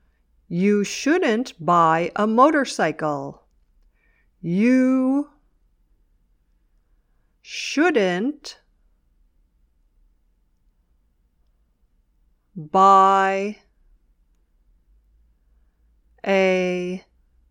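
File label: "dictation", English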